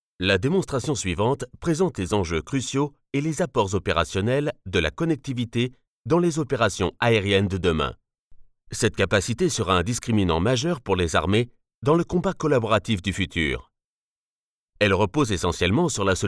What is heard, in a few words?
french corporate videos